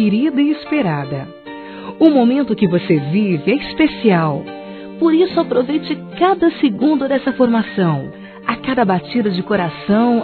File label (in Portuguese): Parabéns Pela Gravidez Feminino; Telemensagens; Felicitações; Telemensagens 2017